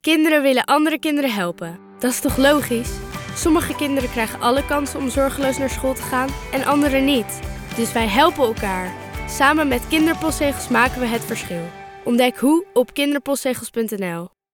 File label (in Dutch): radiocommercial; KPZ campagne